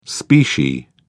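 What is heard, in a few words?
"specie", British English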